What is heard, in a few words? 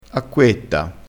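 "acquetta", Italian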